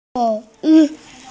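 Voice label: "you2xi4", Mandarin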